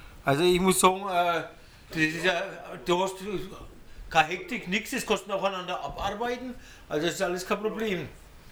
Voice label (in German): bamberg Freundesgespraech